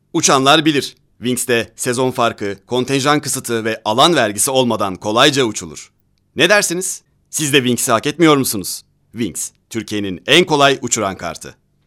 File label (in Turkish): REKLAM